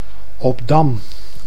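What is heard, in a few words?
"Obdam", Dutch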